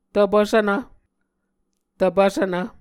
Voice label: "tvpvsvnv", muscogee